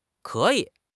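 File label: "ke3 yi3", Mandarin Chinese; HSK2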